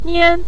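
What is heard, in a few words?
"mian", Mandarin Chinese neutral tone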